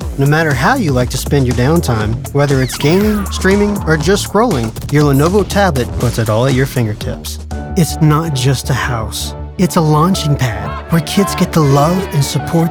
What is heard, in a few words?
Commercial